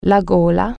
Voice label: "throat", Italian

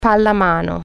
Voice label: "team handball", Italian

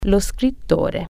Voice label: "writer", Italian

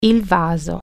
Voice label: "vase", Italian

